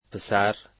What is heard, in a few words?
"son", dari